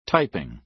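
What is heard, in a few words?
"typing", American English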